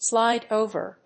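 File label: "Slide Over", English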